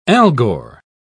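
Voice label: "algor", British English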